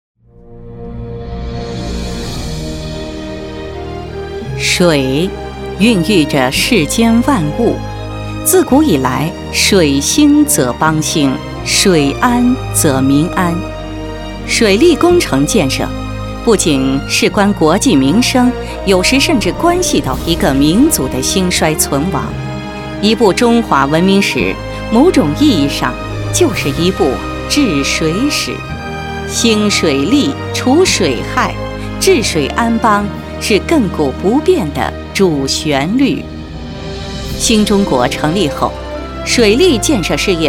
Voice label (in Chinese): 女 专题片 水库移民电视专题, 国语女声配音; 全能配音